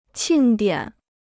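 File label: "庆典", Chinese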